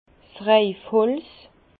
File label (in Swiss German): "reifholz", Bas Rhin; feuillard; vin